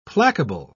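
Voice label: "placable", British English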